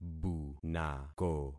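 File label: "bunako", concatenated syllables; Affixing experiment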